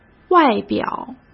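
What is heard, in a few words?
"外表", Mandarin Chinese; chinese-flashcards; hsk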